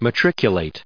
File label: "matriculate", us